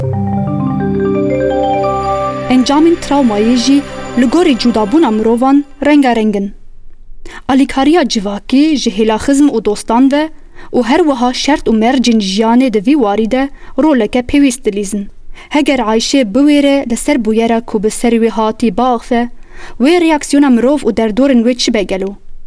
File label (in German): Kurmanci; NAWA